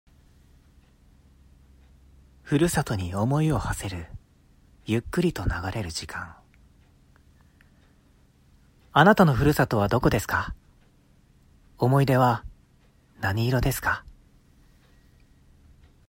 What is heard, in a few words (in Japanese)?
素ナレーション